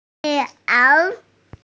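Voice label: "mian2ao3", Mandarin Chinese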